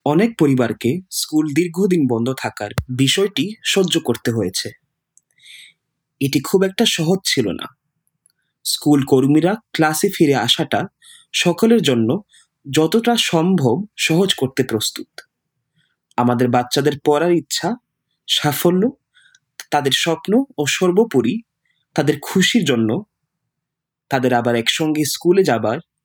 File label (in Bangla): Message Bangla